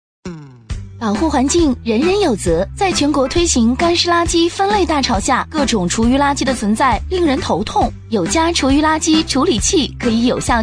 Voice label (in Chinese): 女4号MG; 保护环境